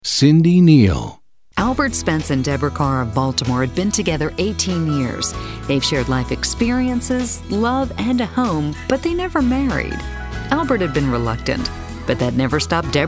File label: narration, women